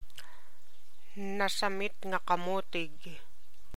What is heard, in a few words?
Ilocano